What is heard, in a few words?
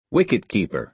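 "wicket keeper", American English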